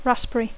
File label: "raspberry", English; Dacco